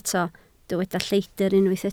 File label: "lleidr", Welsh